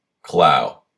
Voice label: "clough", en

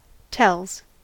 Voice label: "tells", US; pronunciations

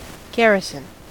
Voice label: "garrison", en

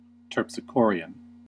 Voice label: "terpsichorean", US; pronunciations

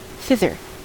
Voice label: "thither", US; pronunciations

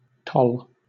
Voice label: "toll", UK

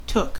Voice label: "took", US

